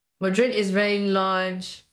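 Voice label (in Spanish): Voice Acting es F, Spanish, female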